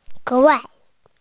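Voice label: "especially", Mandarin, hearing-impaired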